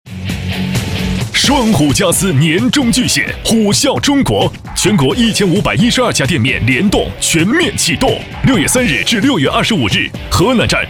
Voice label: 男国 广告 促销 激情; 双虎家私

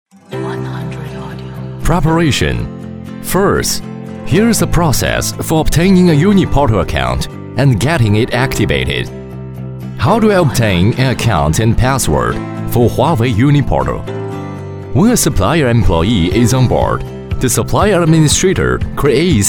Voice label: 男英 多媒体 培训课件 课程讲解; 外语; 英语; 课程讲解Uniportal

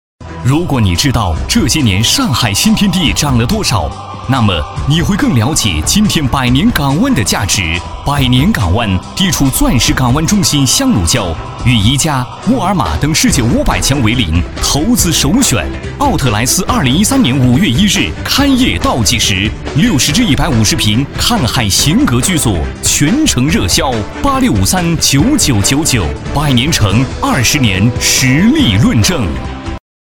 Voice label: 男国 广告 地产 激情; 百年港湾

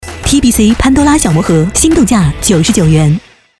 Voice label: 广告 化妆品 轻快, 女国; TBC潘多拉小魔盒

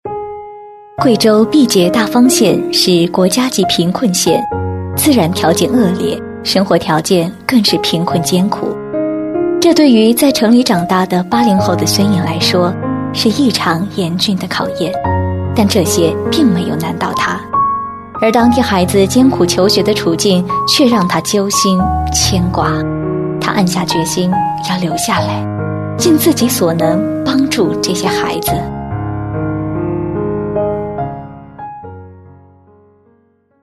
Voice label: 专题 人物 助学 人物介绍, 女国

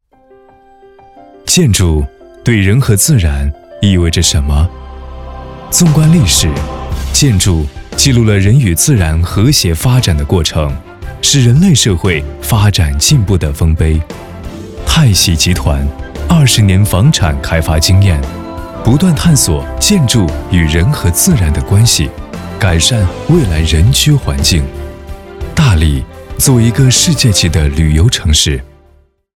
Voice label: 宣传片 企业 房地产公司 浑厚

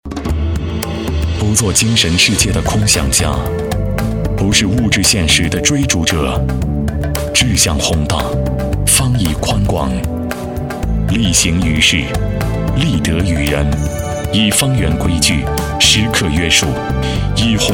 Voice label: 广告 地产 房地产广告 沉稳, 男国

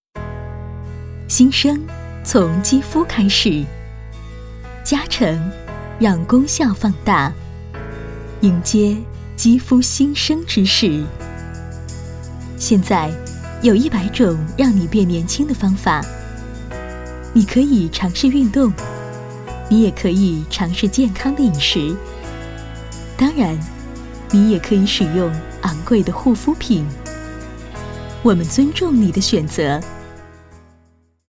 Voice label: 专题 化妆品介绍 温柔

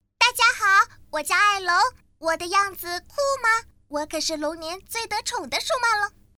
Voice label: 动画 童声 小女孩

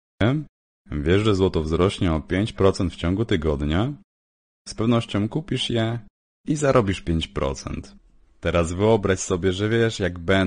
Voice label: 波兰语男, 外语, 小语种, 成熟

